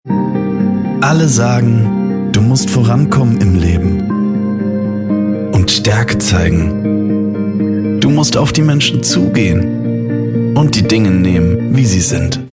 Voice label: Werbung, mellow, soft, intimate, Commercial, German